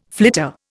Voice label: "flitter", Indian

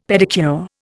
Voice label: "pedicure", Indian; Dictionary

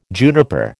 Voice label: "juniper", American

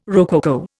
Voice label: "rococo", Indian

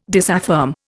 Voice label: "disaffirm", Indian

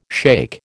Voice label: "shake", American

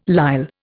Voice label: "lisle", Indian

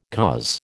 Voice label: "coz", American

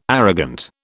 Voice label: "arrogant", British

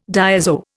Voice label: "diazo", Indian

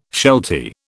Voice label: "sheltie", British

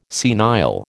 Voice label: "senile", American

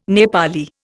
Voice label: "Nepali", Indian

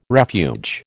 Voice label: "refuge", American

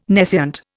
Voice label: "nescient", Indian

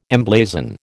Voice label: "emblazon", American

